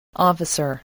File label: "officer", voice, spelling